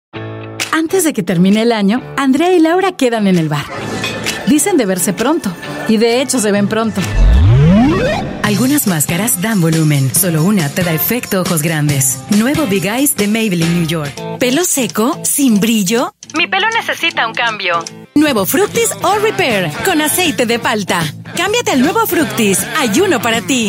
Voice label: Latin Spanish Commercial